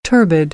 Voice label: "turbid", American, English; ɝː - ɜː

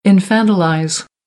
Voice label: "infantilize", American; ə - ɪ

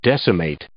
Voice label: "decimate", English

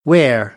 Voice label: "where", American, English; er - eər

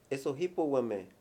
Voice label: "secreto", Mayo; Cognición

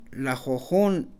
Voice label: "once", Mam; Números; INALI

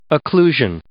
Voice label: "occlusion", English

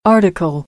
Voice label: "article", American English

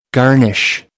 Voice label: "garnish", American

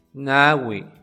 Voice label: "Nawi", Náhuatl; Números; Xipatlani

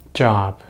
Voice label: "job", American English; easypronunciation

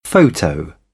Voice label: "photo", British English

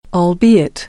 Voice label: "albeit", American English

